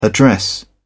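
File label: "address", British English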